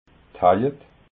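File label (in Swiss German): "heiet", Alsatian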